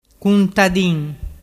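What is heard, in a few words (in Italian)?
"cuntadin", Vernazzese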